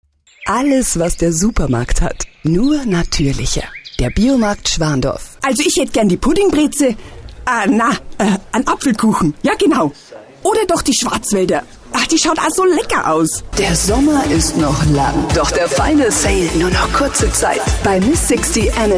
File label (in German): werbung